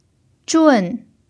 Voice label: "zhun4", Chinese pinyin